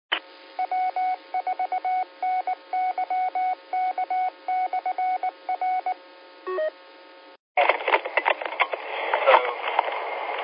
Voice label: qso rec simplex logic